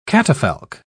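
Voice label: "catafalque", British English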